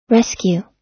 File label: "rescue", us